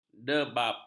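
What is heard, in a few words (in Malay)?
"debap", Malay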